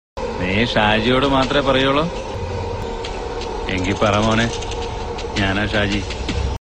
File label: malayalam dialouge ringtone